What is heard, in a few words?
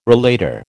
"relater", American